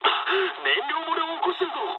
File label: tank damaged mood med, speech jp; War Thunder